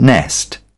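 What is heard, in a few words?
"nest", British English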